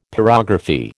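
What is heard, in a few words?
"pyrography", American English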